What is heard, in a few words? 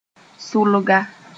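"sʋlga", Mooré; spider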